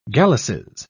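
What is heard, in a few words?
"galluses", British English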